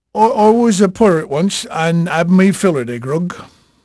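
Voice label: English male, voice; Pirates Of The Caribbean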